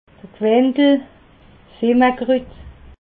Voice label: "quendel", alsace

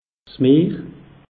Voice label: "meer", alsace; meteo

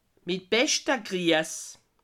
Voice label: "grias", alsace

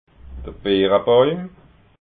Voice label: "birabauim", alsace

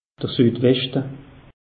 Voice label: "sudweschte", alsace; meteo

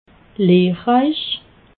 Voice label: "lehrreich", alsace

